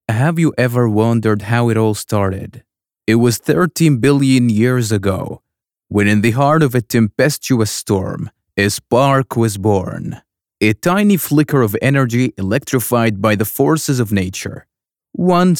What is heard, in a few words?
US English; Spark